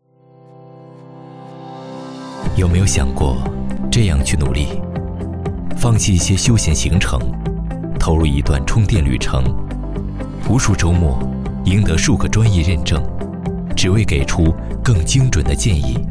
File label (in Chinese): 男国 其他 旁白; 胜样